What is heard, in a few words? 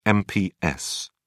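"mps", British English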